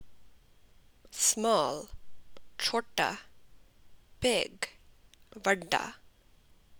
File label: Punjabi Small Big